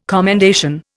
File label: "commendation", Indian